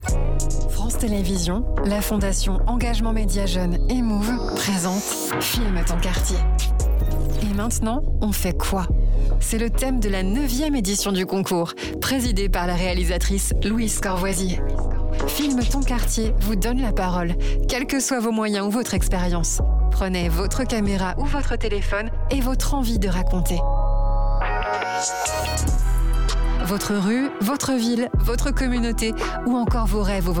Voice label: pub, voix-off; ET MAINTENANT ON FAIT QUOI

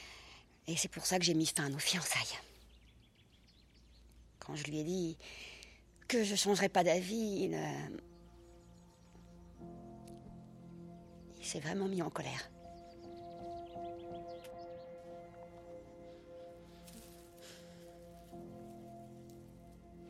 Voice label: Doublage; Love Finds You In Charm

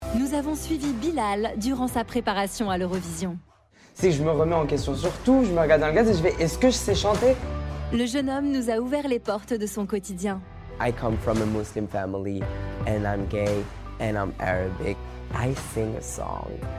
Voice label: Documentaire; Bilal HASSANI

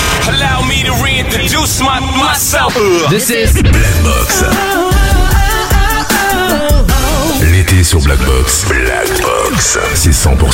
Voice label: Habillage TV Radio FR

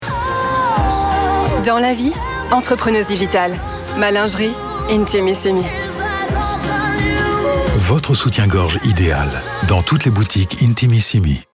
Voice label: pub comédie; LINGERIE INTIMISSIMI; égérie Chiara Ferragni

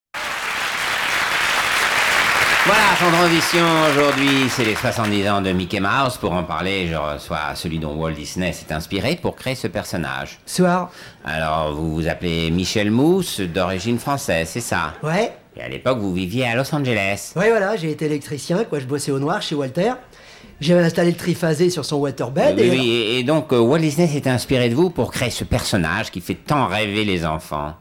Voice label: comedie; LES GUIGNOLS

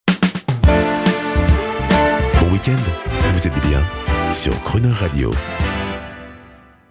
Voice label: JINGLE

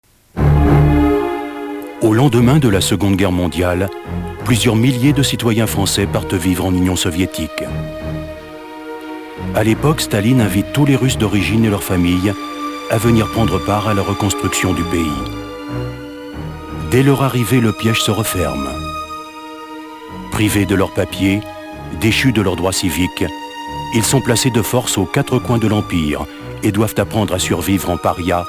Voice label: docu; Arte; Piégés par Staline

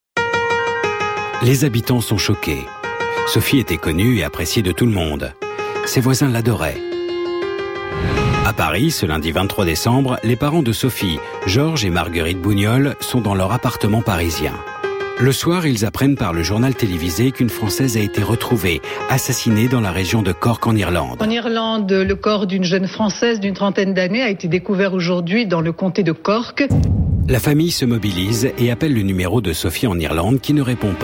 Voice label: Voix off Enquete de verite

